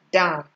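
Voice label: "damn", Nigerian Pidgin, female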